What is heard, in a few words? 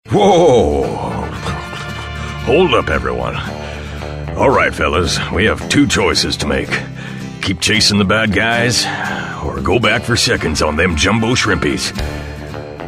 COWBOYS voiceover